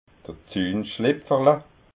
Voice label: "zuhnschlipferla", alsace

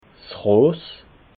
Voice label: "ross", alsace

